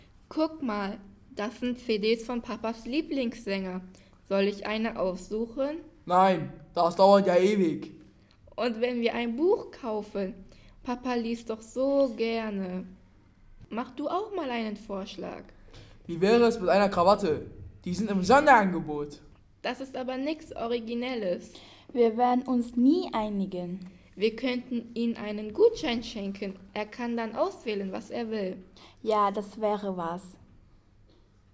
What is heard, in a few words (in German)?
Dialog